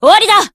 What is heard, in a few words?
rage; ff2